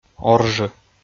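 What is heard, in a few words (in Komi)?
"оржы", Komi, male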